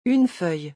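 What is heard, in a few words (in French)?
"une feuille", French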